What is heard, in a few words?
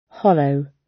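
"hollow", British English; Time, history; Thời gian, lịch sử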